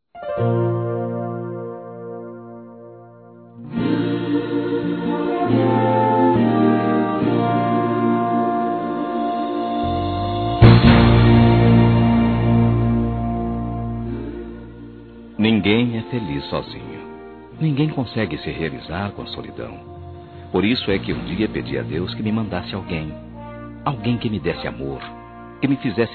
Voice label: ROMANTICA EVANG. M; Aniversários; ANIVERSARIO romantico; masc

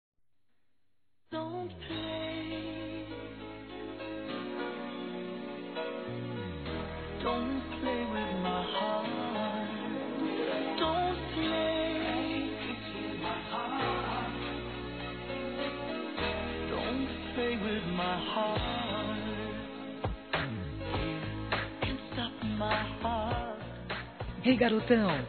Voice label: Aniversário Adolescente Feminino; Aniversários; ANIV. DE ADOLESCENTE; Telemensagens

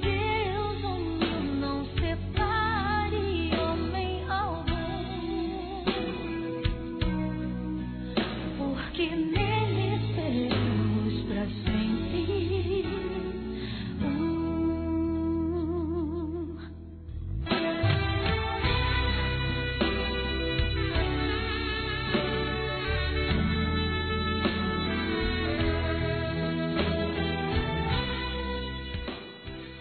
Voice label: ANO NOVO ROMANTICO F; Telemensagens; Natal e Ano novo; fem; Misto

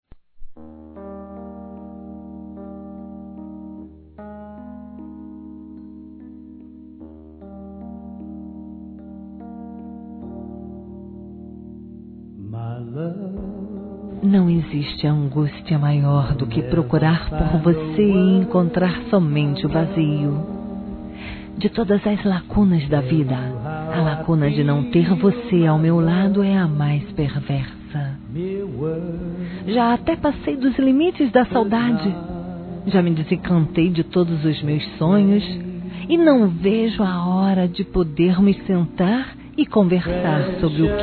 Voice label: RECONCILIAÇÃO F, Telemensagens, fem

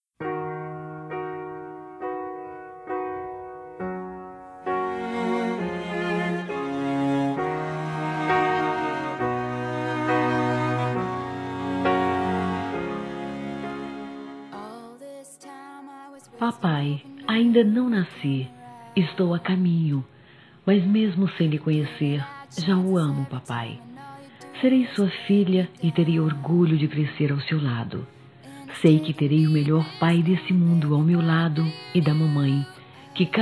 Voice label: Filho na barriga para pai voz adulto, Telemensagens, Dia dos Pais, fem; Telemensagens 2017

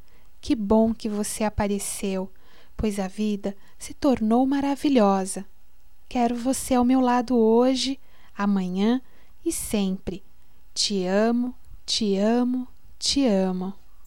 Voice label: Anjo sublime, fem

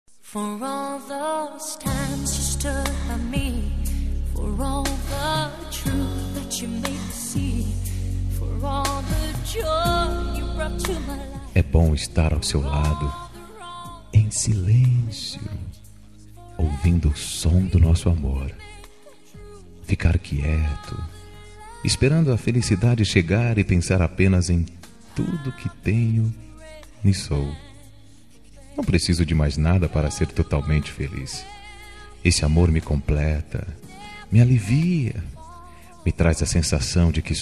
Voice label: ROMANTICO; Telemensagens; Dia Dos namorados; masc; Telemensagens 2017

